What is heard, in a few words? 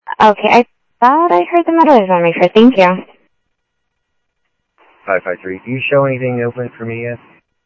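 Sheriff Lake Patrol, scanner audio trunk; MCSO Lakes 1; ThompsonPeakScot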